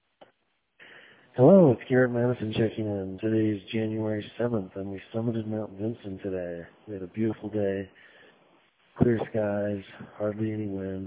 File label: voicemail